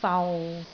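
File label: "fau6", Cantonese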